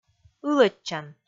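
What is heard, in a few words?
"ылӧдчан", Komi, female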